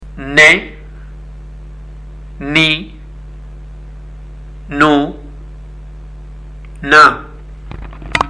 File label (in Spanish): NUNUN CON VOCALES, ARABE; ARABE A1; ALFABETO; LETRAS CON VOCALES